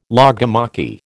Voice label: "logomachy", American

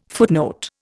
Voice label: "footnote", Indian

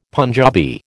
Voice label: "Punjabi", American

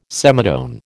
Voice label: "semitone", American

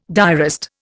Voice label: "diarist", Indian

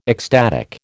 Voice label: "ecstatic", American

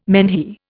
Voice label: "menhir", Indian

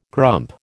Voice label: "grump", American

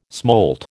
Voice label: "smolt", American

